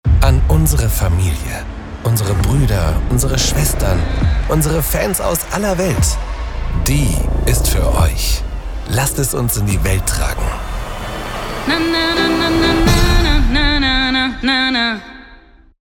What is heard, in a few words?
Commercial, Commercial (Werbung), German; voice; Werbespot - FIFA